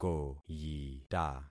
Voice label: "koyita", concat syllables; Affixing experiment